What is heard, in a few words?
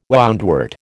"woundwort", American